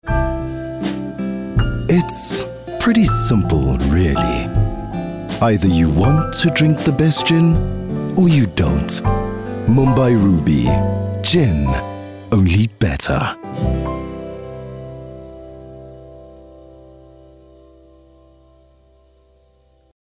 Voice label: English dramatic with music